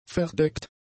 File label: "verdeckt", German; adjectives